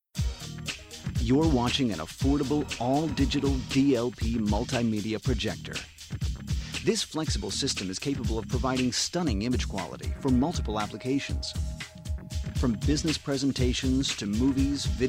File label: Male, promos